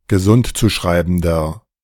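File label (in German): "gesundzuschreibender", German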